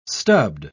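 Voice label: "stubbed", British English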